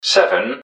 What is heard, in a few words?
"seven", english man, subway announcers; MetrostroiAddon